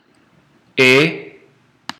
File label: latin e